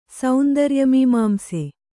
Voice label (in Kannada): "ಸೌಂದರ್ಯಮೀಮಾಂಸೆ", Kannada